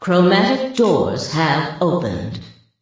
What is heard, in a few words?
announcer opening